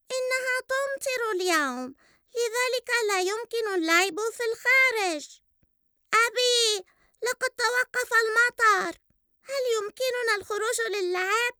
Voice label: Cartoon